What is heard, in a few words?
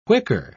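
"whicker", British English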